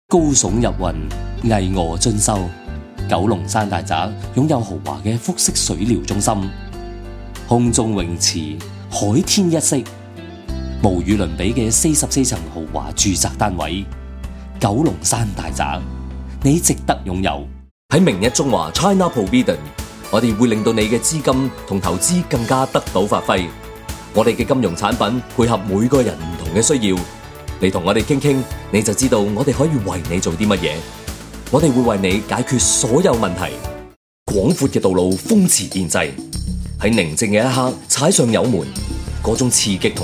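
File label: Cantonese, TV commercial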